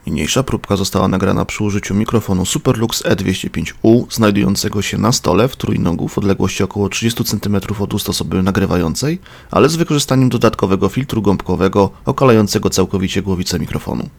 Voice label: Voice test filtered